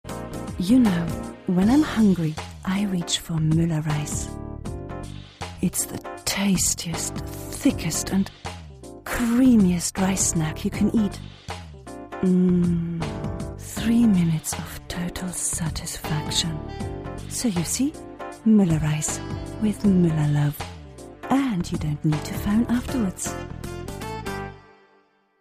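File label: German, Accented English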